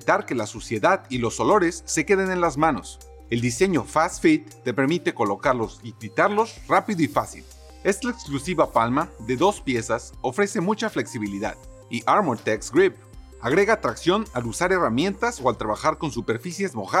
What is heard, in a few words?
Fuel Glove Spanish